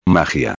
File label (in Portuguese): "magia", espanhol